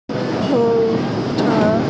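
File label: "huo3che1", Mandarin Chinese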